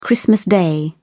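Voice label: "christmas day", British English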